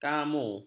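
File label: "kamu", Malay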